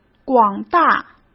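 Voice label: "广大", Mandarin Chinese; hsk; chinese-flashcards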